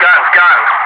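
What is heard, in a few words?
"guns", English speech, Wingman; DCS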